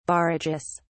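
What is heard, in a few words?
"barrages", British English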